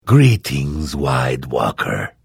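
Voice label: Hello, Vo; Morrowind GOTY GOG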